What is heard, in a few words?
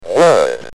"Word", UK Speech